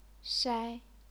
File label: "shai1", chinese pinyin